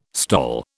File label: "STOL", British